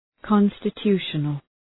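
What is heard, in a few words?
"constitutional", English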